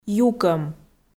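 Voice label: "юкӧм", Komi, female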